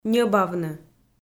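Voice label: "ньӧбавны", Komi, female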